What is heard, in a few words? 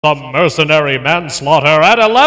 announcer round start; open fortress